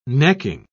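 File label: "necking", British English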